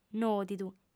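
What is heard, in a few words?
"nòdidu", female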